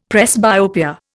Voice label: "presbyopia", Indian; Dictionary